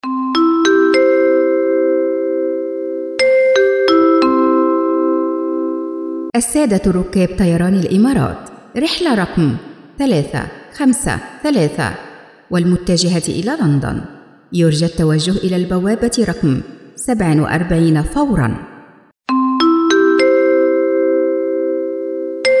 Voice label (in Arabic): نداء المطار بالموسيقى